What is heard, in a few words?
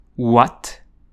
"ouate", French